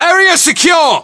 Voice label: area secure, english voiceovers